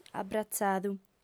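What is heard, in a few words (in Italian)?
"abratzadu", sarda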